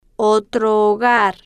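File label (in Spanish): otro hogar, Spanish, female, slow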